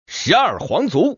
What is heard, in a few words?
common man